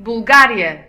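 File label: "bulgaria", Portuguese; gcompris; geography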